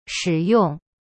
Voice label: "使用 shi yong", Chinese; cyberchinese

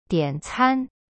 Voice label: "点餐", Chinese; dian can

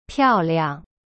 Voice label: "漂亮" piao liang, chinese; cyberchinese

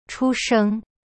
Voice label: "出生" chu sheng, chinese

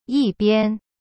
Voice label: "一边" yi bian, chinese

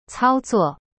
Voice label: "cao1zuo4", Chinese; cyberchinese

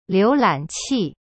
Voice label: "浏览器" liu lan qi, chinese

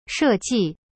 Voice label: "设计" she ji, chinese; cyberchinese

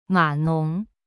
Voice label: "ma3nong2", Chinese; cyberchinese

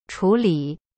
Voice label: "chu3li3", Chinese; cyberchinese

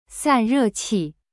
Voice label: "san4re4qi4", Chinese; cyberchinese

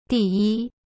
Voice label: "第一" di yi, chinese

